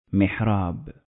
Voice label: "Mihrab", Arabic

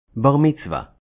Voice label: "Bar Mitzvah", Hebrew

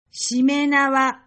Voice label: "Shimenawa", Japanese

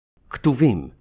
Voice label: "Ketuvim", Hebrew

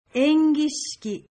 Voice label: "Engishiki", Japanese

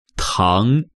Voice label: "Tang", Chinese

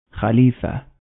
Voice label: "Caliphate" Arabic

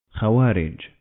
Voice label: "Kharijite", Arabic

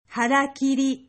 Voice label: "Harakiri", Japanese

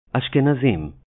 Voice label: "Ashkenazim", Hebrew

